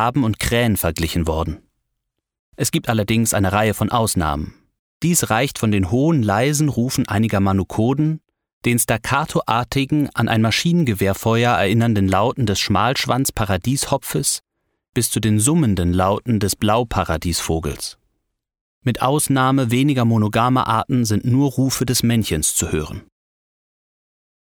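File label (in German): Sachtext Paradiesvögel; LOFT VOICES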